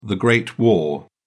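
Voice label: "great war", British English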